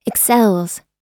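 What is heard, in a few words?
"excels", US English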